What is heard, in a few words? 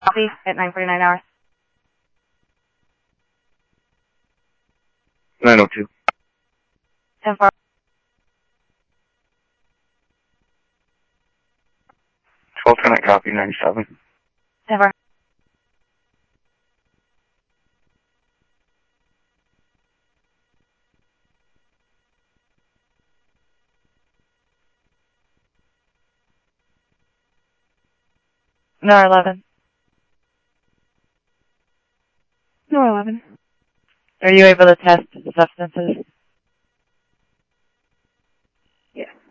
PD Police, scanner audio